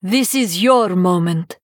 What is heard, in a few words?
Female Draenei Concede